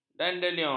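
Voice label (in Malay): "dandelion", Malay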